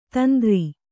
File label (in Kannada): "ತಂದ್ರ", Kannada